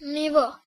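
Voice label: level, Basque; gcompris-data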